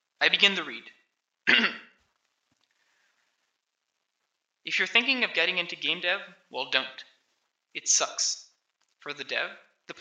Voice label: voice, narration